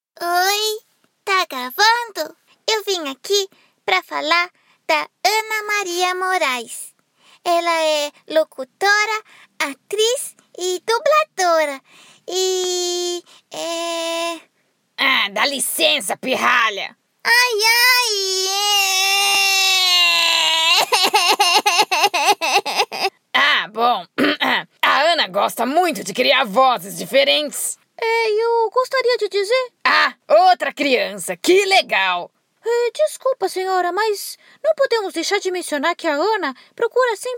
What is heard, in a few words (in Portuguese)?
Caricato